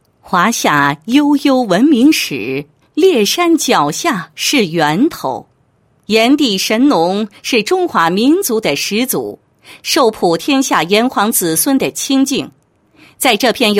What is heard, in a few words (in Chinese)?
女 汇报; 食品药品监督检验检测中心巡礼